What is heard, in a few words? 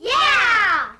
"yeah" kids